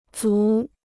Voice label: "族", Chinese